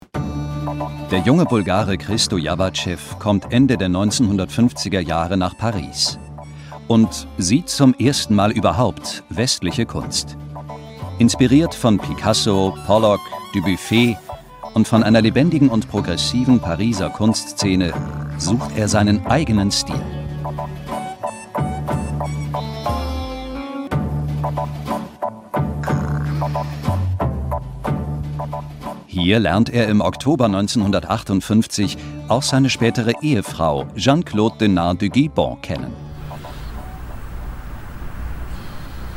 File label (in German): Doku Kommentar Künstler